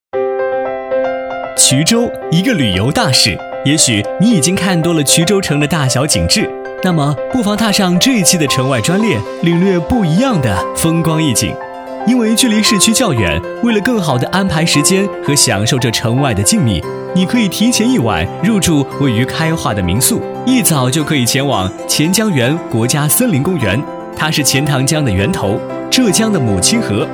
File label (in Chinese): 男 旅游节目; 衢州旅游线路